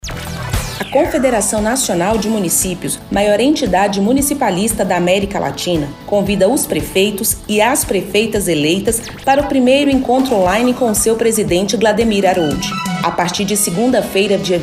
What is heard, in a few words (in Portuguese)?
Spot radio convite